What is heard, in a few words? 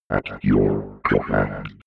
robot voice at your command fx